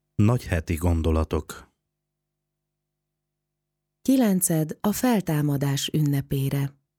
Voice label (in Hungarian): Jingle szoveg; Kilenced a feltamadasert